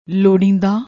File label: "lorrinda", Punjabi; ਲੋੜੀਂਦਾ